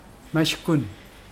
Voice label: "be blind", Mokilese

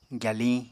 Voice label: "gyaliñ", Apatani

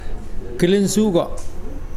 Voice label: "tree bark", Mokilese

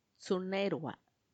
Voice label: "tsunaerua", twentythree, macuiltianguis; veinitres

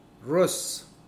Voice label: Mokilese dark

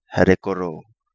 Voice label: "Herekoro", Kapingamarangi

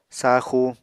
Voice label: "saaxu", Apatani

